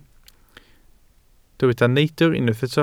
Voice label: "neidr", Welsh